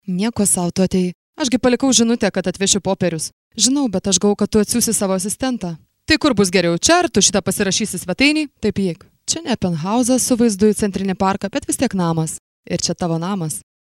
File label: female lithuanian voice over narrative